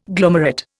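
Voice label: "glomerule", Indian; Dictionary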